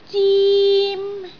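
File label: "zim1", Cantonese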